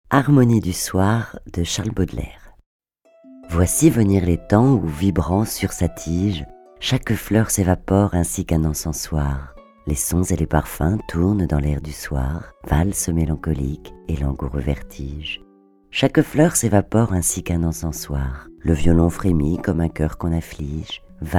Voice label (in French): Narration; Harmonie du soir; Baudelaire